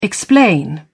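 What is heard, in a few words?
"explain", English, British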